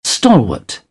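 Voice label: "stalwart", British English; AmericanBritish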